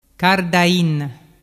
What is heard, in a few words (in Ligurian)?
"cardain", dialettu